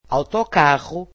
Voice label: "bus", Portuguese